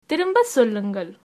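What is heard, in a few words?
say again, tamil, female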